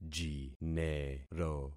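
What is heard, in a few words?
"jinero", concatenated syllables; Affixing experiment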